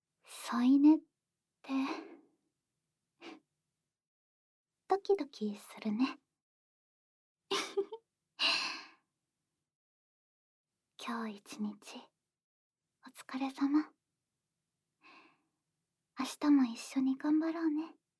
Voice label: 添い寝; ASMR; 特典システムボイス

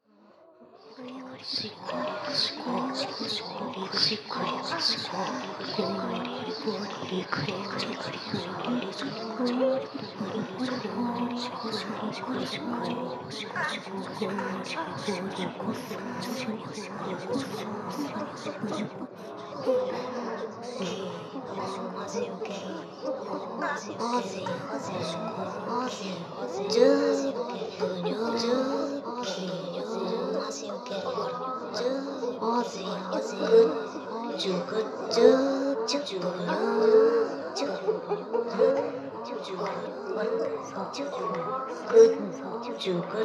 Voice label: しこしこ＋Ｈなセリフ, ASMR; ダウナー風俗オナサポ味

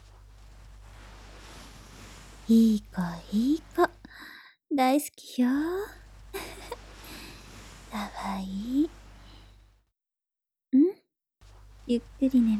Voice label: ママのお休みよしよし 効果音入り

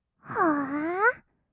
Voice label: "はー？", kawaii voice; ASMR; 色々なワンショット

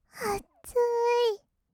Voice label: 「あつーい」, kawaii voice; 1200 kawaii voice!!; 色々なワンショット

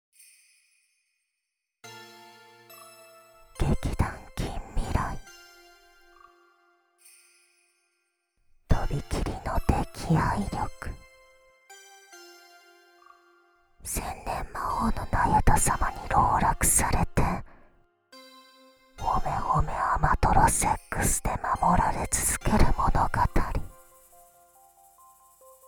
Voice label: タイトルコール; とびきりの溺愛力…千年魔王のナユタ様に籠絡されて、褒め褒めあまとろセックスで守られ続ける物語♪

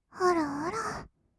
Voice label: あらあら, kawaii voice; ASMR; 色々なワンショット

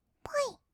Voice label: "ポイッ", kawaii voice; 1200 kawaii voice!!; 色々なワンショット

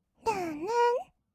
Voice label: "るんるんっ", kawaii voice; 1200 kawaii voice!!; 色々なワンショット

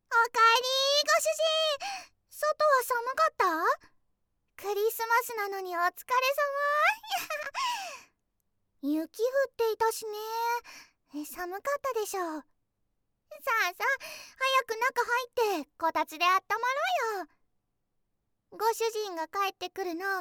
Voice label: マッサージ、オノマトペ、パンツこき、SEあり, ASMR

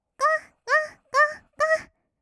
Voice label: gogo, kawaii voice, 合いの手; 1200 kawaii voice!!; ASMR

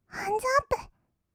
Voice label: "ハンズアップ", kawaii voice; ASMR; 1200 kawaii voice!!; 音ゲー

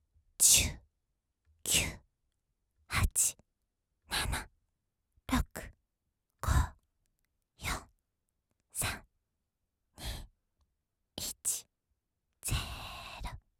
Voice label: カウントダウン 囁き

